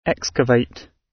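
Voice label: "excavate", British English; Time, history; Thời gian, lịch sử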